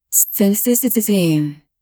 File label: female voice from video, announcement